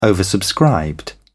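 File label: "oversubscribed", English, British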